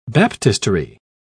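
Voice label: "baptistery", British English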